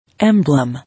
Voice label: "Emblem", English